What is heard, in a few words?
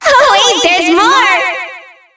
Distortion Skill Duo; BBTAG